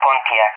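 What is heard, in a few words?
"Pontiac", English, SPAIN; CallSign; DCS; Allied Flight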